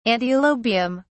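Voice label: "antilobium", British English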